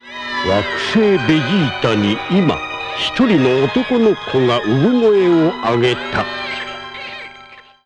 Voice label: narrator; dragon ball z tv special 2